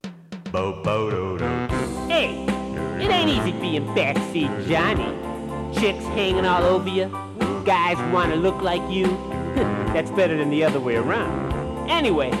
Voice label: Radio Commercials - Tamaroff Buick